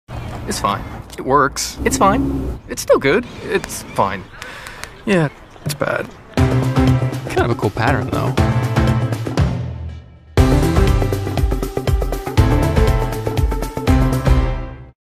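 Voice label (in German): US English Dynamisch; Fremdtake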